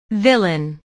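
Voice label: "villain", English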